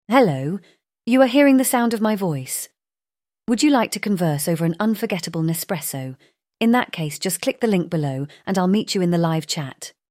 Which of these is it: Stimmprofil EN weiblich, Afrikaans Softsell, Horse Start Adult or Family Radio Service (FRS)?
Stimmprofil EN weiblich